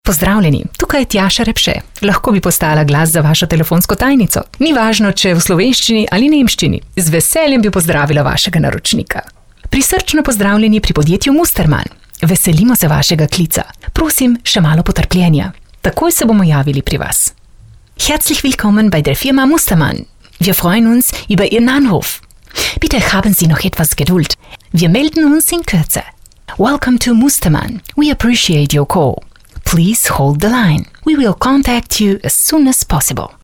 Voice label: Sprecher Native speaker; professionelle Native Speaker

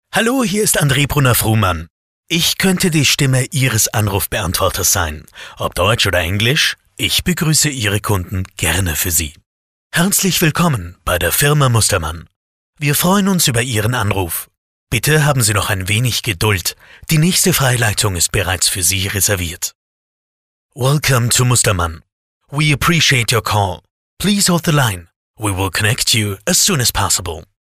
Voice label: Sprecher Telefonansagen; Professionelle Sprecher

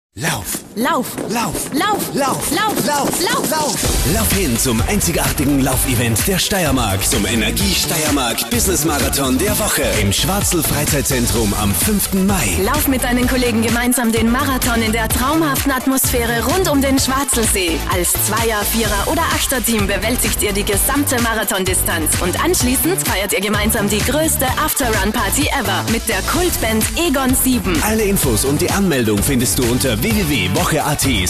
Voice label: Sprecher Showreel

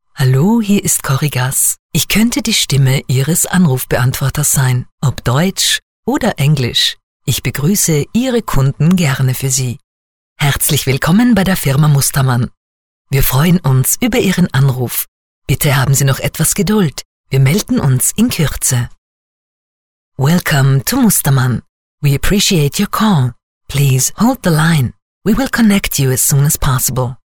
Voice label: Sprecher Telefonansagen

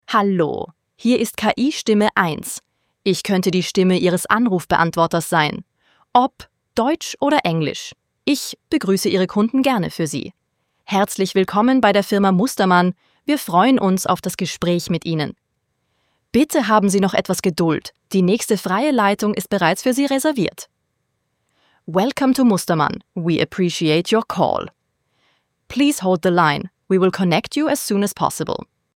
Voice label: KI-Stimme

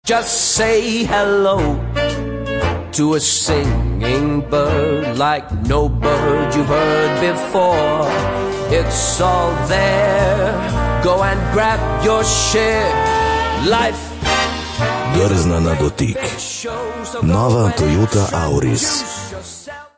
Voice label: Sprecher Native speaker

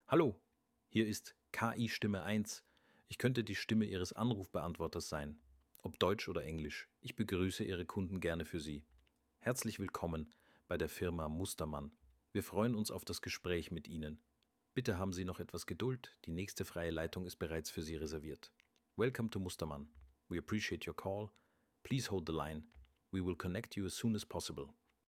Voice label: KI-Stimme

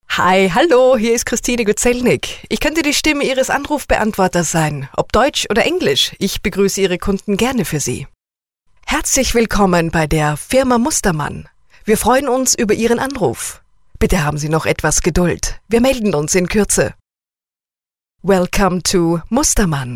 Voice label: Sprecher Telefonansagen

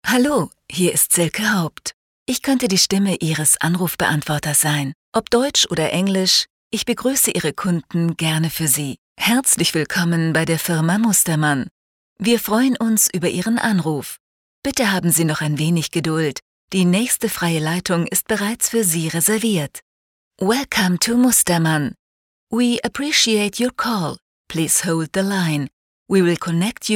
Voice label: Telefonansagen

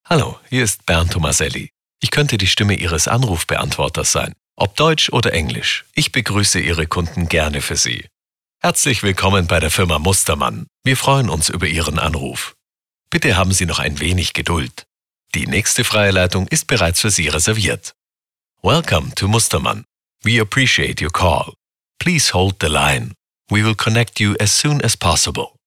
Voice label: Telefonansagen

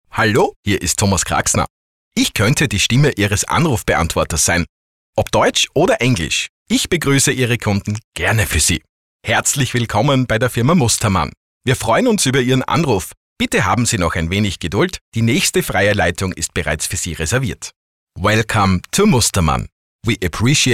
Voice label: Telefonansagen

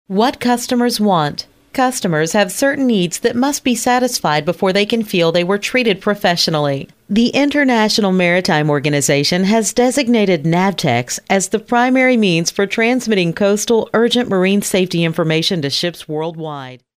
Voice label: Sprecher Nativspeaker

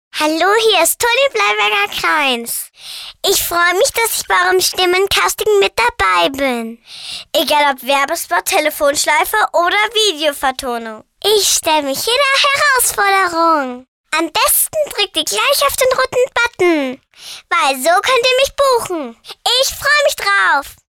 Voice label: Kinder Neutral; Kinderstimmen

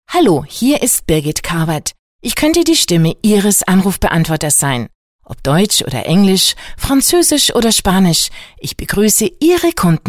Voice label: Sprecher Telefonansagen

